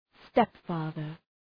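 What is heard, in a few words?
"stepfather", English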